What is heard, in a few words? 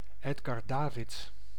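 "Edgar Davids", Dutch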